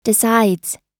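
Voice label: "decides", US English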